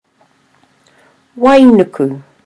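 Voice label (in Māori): "wainuku", Māori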